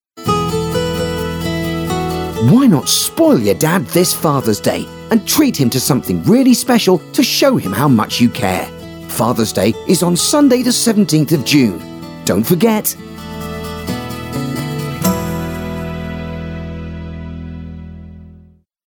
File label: fathers day male